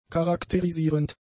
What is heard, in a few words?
"charakterisierend", German; adjectives